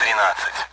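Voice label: Speech Sound Russian Common AWACS Numbers; DCS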